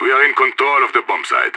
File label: bombsite clear, radio; SAS